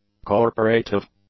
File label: "corporative", English; adjectives